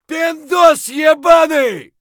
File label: bear battlecry; eft